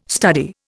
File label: "study", Indian